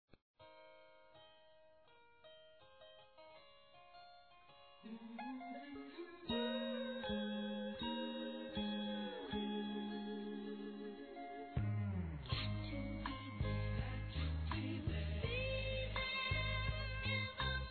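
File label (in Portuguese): Masculino, Telemensagens Aniversários; PADRINHO; Telemensagens 2017; Aniv Familiar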